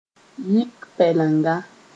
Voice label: "yɩk pɛlenga", Mooré; trouble

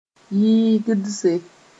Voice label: "yɩɩgdse", Mooré

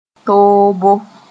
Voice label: "toobo", Mooré

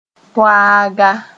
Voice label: "toaaga", moore

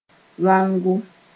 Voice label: "waongo", Mooré

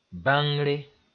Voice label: "bãngre", Mooré

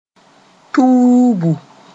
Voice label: "tuubu", moore

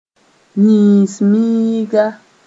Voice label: "yĩis-miiga", Mooré

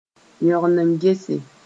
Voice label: "yong n gese", Mooré; admire

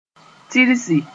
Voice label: "tirsi", Moore; jump around

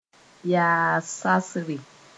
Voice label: "yaas-sasre", Moore

